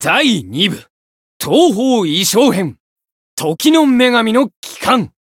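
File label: title, Japanese; shigure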